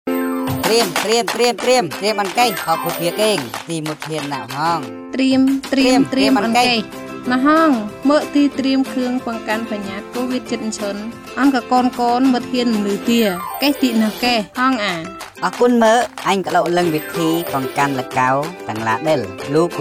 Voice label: radio spot; School reopening; Tompoun